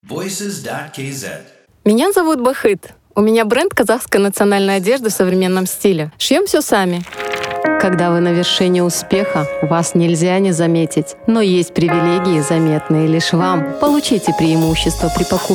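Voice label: Russian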